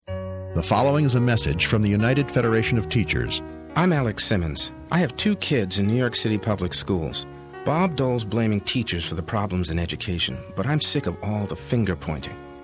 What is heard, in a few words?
VOICE OVER